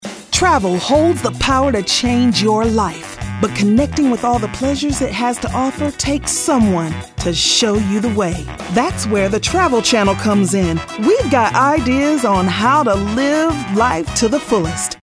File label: upbeat